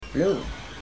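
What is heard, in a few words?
"bloh", Pennsylvania Dutch; bloo; blue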